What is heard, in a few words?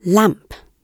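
"lamp" British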